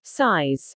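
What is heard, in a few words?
"size", English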